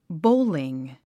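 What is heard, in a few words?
"bowling", English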